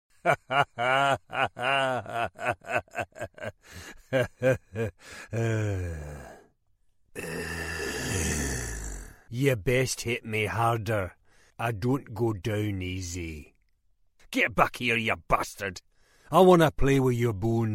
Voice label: characters gaming animation